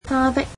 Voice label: "her", Cantonese